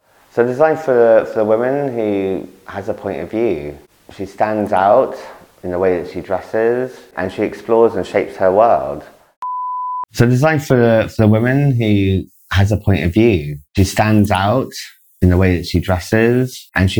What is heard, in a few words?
interview Heavy clean before after; Alchimie